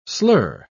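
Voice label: "slur", English word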